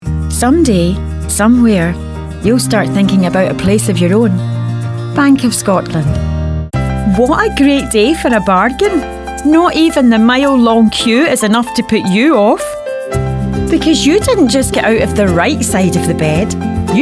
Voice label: Commercial